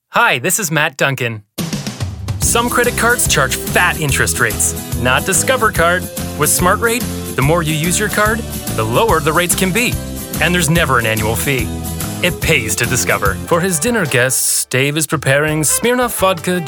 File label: commercial male